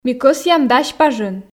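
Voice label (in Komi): ми кӧсъям дась пажын, Komi, female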